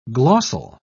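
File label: "glossal", English, British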